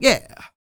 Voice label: FEM SPOKEN; ILIO; Vocal Planet VOL-4; R&B & Dance